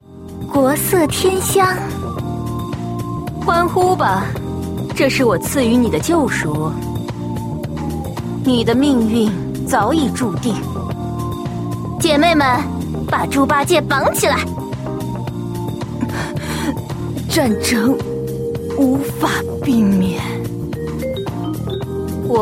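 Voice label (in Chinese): female, nvwang yujie (queen, mature woman)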